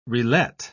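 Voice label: "relet", British English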